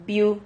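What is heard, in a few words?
"biu", Spanish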